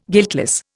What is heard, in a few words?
"guiltless", Indian